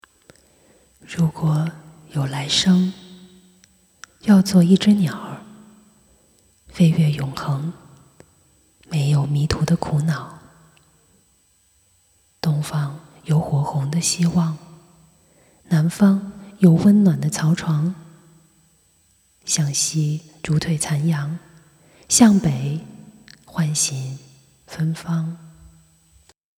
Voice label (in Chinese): 情感类